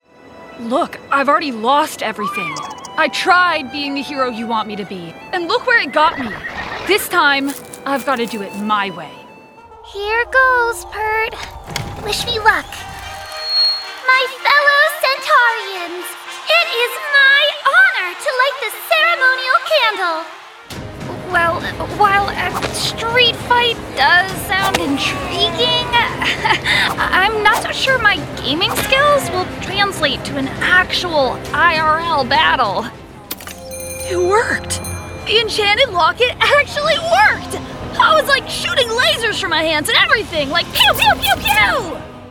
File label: Animation, female voiceover talent